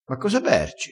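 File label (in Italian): "bercia", dialettale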